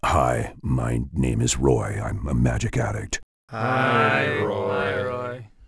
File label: blood elf mage pissed; Warcraft III Frozen Throne